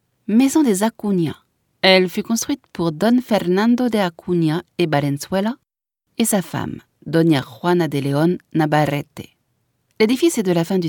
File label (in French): audioguia, frances; audioguia baeza